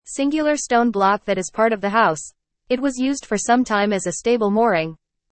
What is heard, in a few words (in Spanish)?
AUDIO INGLES; PIEDRA GORDA